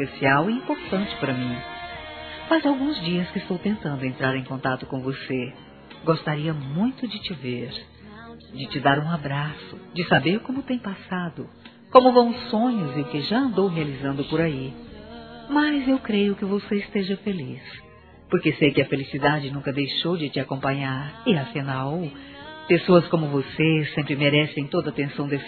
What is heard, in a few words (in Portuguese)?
SAUDADE AMIZADE F, Telemensagens; Saudades